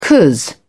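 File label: "cos", British English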